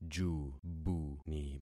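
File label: "jubuni", concatenated syllables; Affixing experiment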